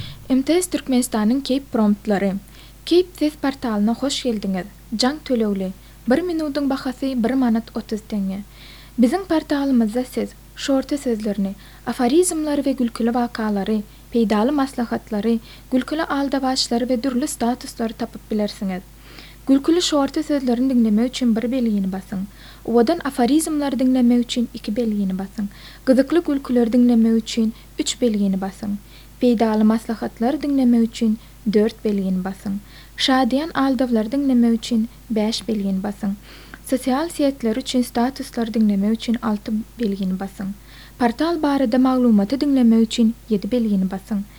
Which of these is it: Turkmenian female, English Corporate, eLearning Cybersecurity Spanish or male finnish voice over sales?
Turkmenian female